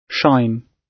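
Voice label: "shine", British English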